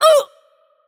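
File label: Mexican Spanish AttackHeavy; bloom minigame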